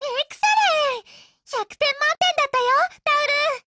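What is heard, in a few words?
WIN, Japanese, Voice; GF Alarm; M500Mod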